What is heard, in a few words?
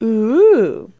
"ooh" female; ooh ahh